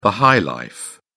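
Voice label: "high-life", English, British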